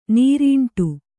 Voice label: "ನೀರಂಡೆ", Kannada

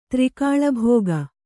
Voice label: "ತ್ರಿಕಾಳಭೋಗ", Kannada

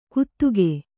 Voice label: "ಗುತುಗೆ", Kannada

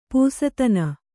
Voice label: "ಪಸದನ", Kannada

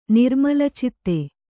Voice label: "ನಿರ್ಮಲಚಿತ್ತೆ", Kannada

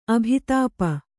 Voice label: "ಅಭಿತಾಪ", Kannada

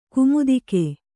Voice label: "ಕುಮುದಿಕೆ", Kannada

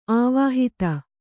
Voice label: "ಅವಹಿತ", Kannada

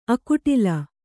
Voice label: "ಅಕುಟಿಲ", Kannada; akuṭila

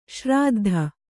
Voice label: "ಶ್ರಾದ್ಧ", Kannada

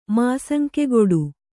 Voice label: "ಮಾಸಂಕೆಗೊಡು", kannada

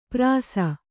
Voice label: "ಪ್ರಾಸ", Kannada

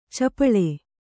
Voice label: "ಛಪ್ಪಳೆ", Kannada

